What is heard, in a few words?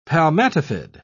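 "palmatifid", British English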